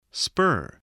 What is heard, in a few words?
"spur", voice